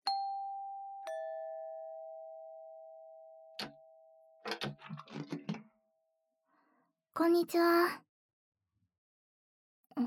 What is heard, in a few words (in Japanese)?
自己紹介, ASMR; 今日から私がお兄さんのママです